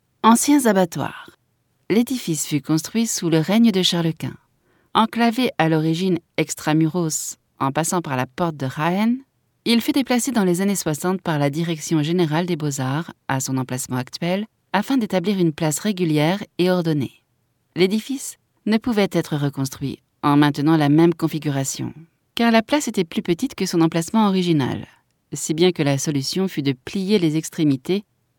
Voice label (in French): audioguia, frances; audioguia baeza